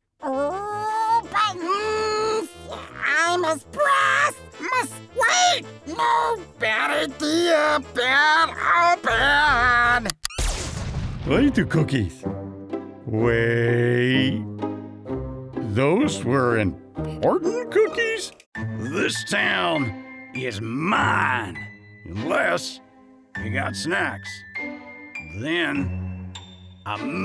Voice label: Cartoon Voice Over